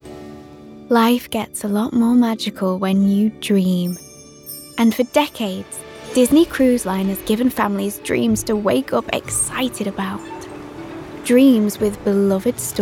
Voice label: Manchester, Commercial, Bright, Light, Warm Magical; Disney